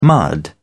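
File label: "mud", British English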